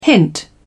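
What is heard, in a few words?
"hint", British English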